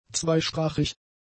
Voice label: "zweisprachig", German; adjectives